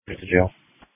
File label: Police Tactical Encrypted; sdr